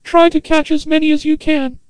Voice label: Antenna Voice

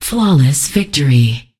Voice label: Voices Announcer Status; unreal development kit

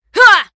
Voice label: Voices Vo Female Fight Attack; Mighty Party; Android

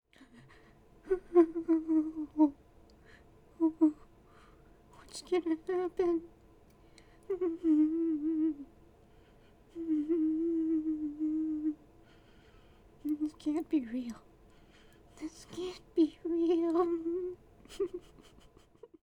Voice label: Voices Female Idle

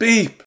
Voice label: Voices exasperated; The Looker

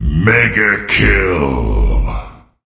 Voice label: Voices Announcer Reward

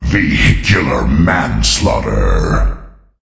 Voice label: Voices Announcer Reward

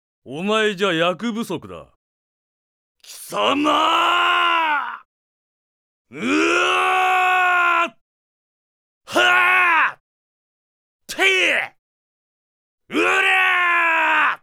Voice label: character voice; D-XHIRD; MEROW

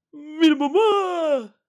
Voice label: Happy

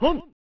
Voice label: "Hun!" (Male); pro mahjong kiwame; snes

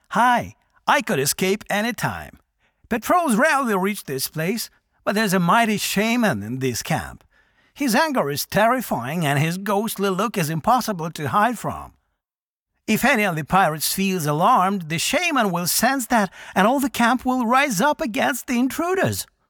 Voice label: Voice Dialog; we are the dwarves gamerip 2016

